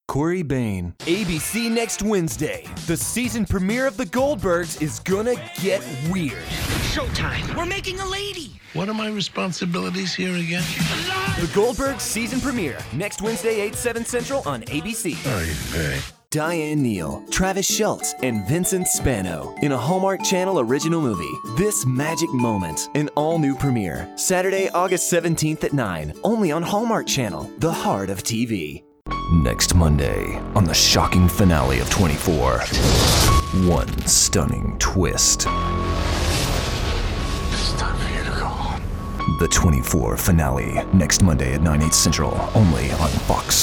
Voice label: Promo

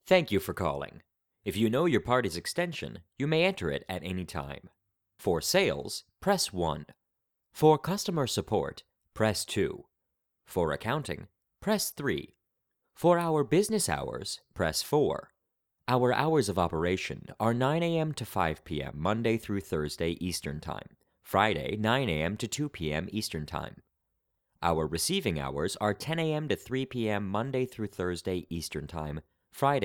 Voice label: Phone Recording

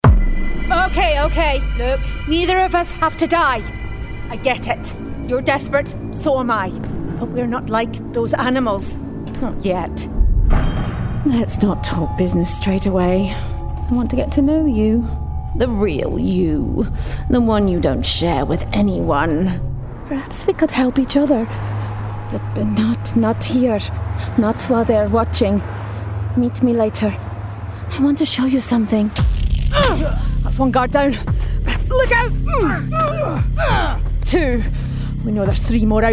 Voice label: Gaming Character

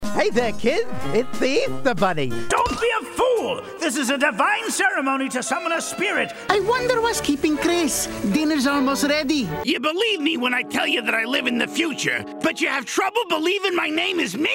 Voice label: Animation Voice Over

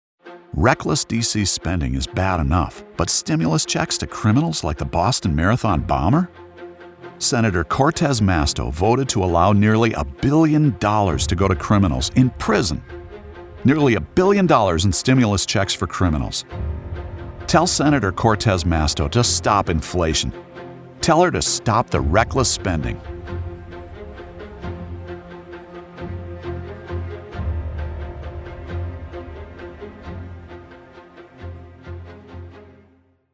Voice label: Male Political Voice Over

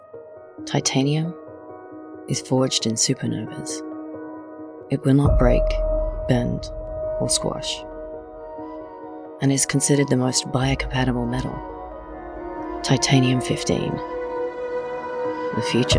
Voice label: Commercial Voice

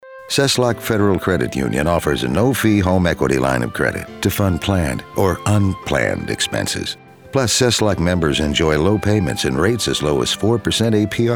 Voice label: Very Conversational

